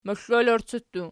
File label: "moxlolorci", Archi